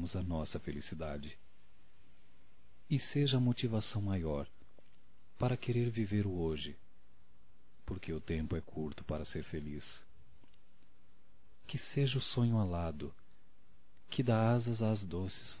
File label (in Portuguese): Amor Masculino; Telemensagens 2017